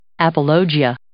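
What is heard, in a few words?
"apologia", English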